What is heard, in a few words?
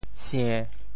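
"sie~1", Teochew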